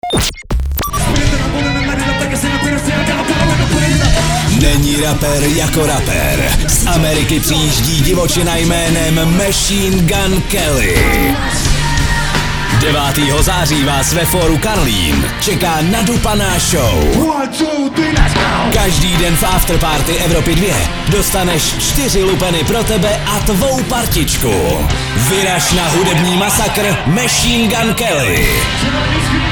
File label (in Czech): liner soutez; evropa2